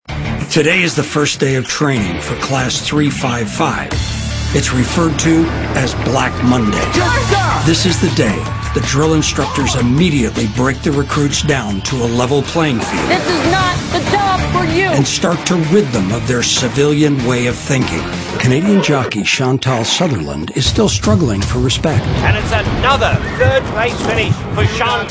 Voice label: male narration

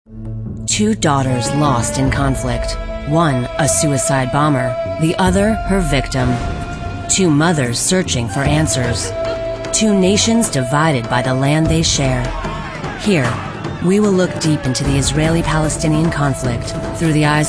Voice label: female, late20s late30s clear

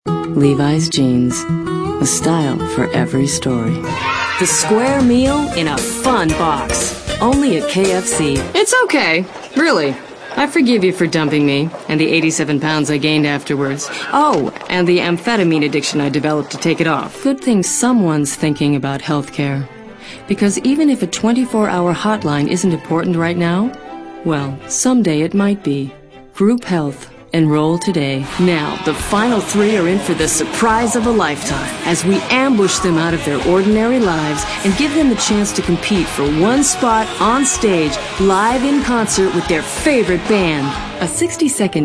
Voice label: female late 20s mid 30s contemp

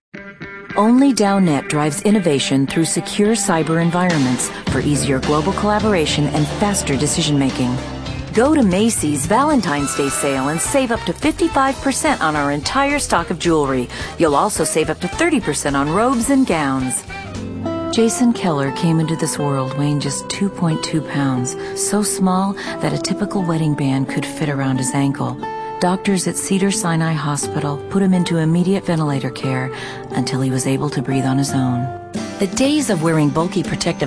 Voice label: female 30s 40s

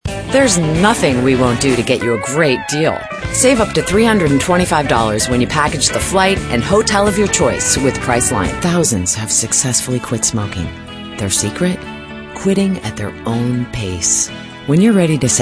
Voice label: female, late20s mid30s contemp

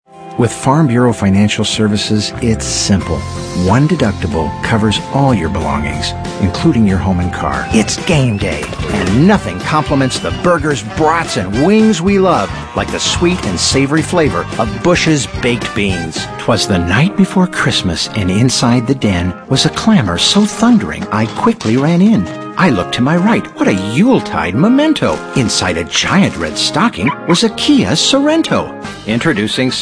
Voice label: male, natural character

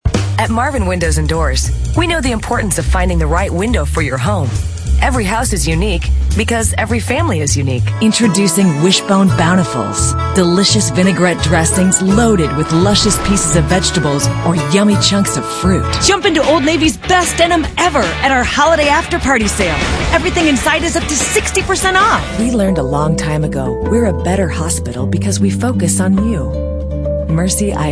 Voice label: female late 20s mid 30s contemp

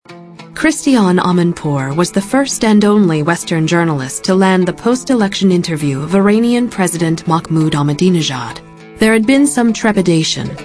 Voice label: female narration

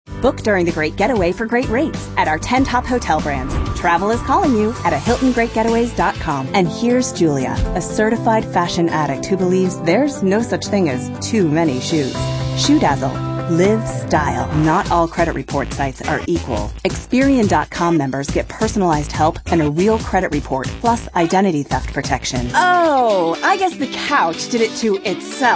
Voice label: female, late30s early40s clean

